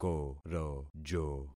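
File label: "korojo", concatenated syllables; Affixing experiment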